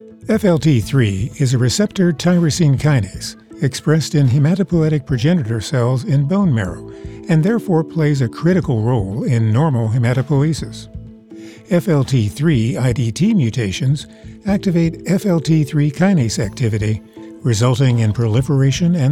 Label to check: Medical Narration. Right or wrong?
Right